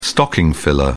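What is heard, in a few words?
"stocking filler", English, British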